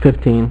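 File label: "fifteen", male; MacCribbage; InterStellar MacCribbage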